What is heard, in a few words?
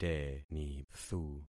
"tenithu", concat syllables; Affixing experiment